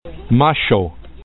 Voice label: "masxo", esperanto